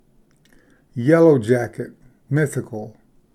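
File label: "yellowjacket mythical", English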